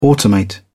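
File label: "automate", English, British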